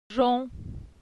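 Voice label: "Jon", French; phonetique; la syllabe on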